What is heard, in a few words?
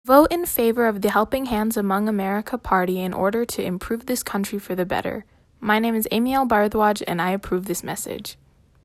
radio ad